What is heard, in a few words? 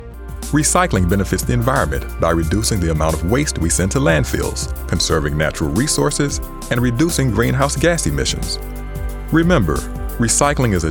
commercial